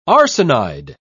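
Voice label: "arsenide", British English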